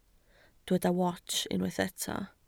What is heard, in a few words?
"wats", Welsh